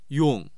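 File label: "yong4", Mandarin Chinese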